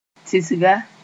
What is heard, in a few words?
"tĩsga", Moore; dull